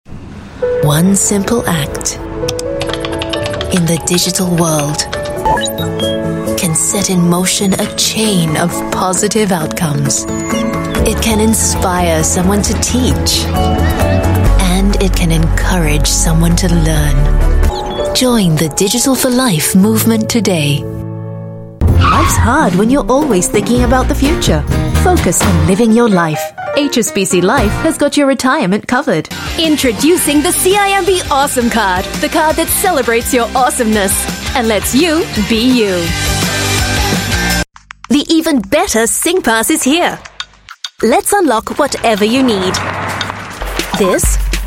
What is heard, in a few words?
Asian English Friendly Bright Warm